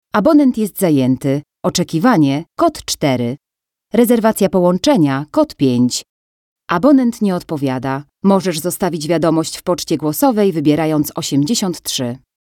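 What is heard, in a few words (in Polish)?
IVR, female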